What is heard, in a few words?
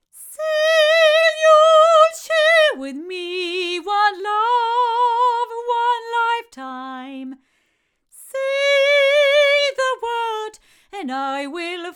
female; Pearl CC22; microphones